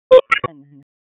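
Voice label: Wake County EMS ALERT, p25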